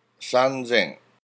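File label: "sanzen", Japanese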